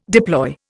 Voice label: "deploy", Indian